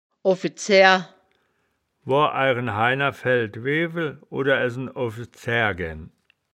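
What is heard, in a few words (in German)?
"offizaehr", Wittlicher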